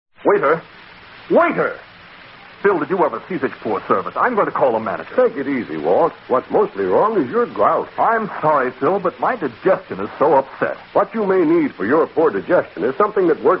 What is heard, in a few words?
Commercials, Carter's Little Liver Pills